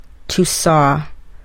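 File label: "to saw", English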